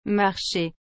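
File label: "marcher", French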